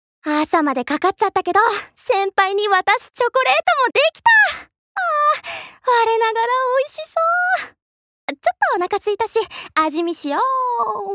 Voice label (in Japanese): アニメ